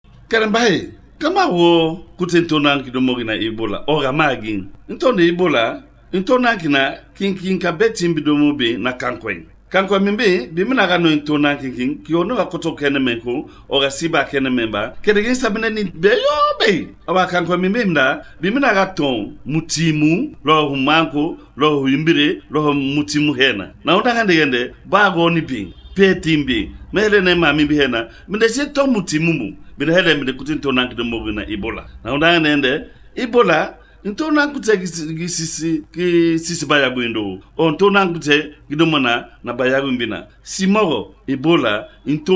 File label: Limba; ebola; radio-spots